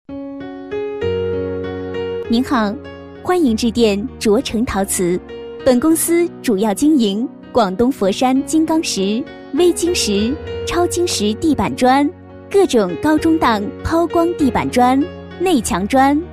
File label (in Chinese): 女 彩铃, 国语女声配音, 年轻广告; 陶瓷